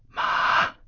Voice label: tone whisper, mandarin tones